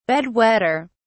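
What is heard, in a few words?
"bed wetter", British English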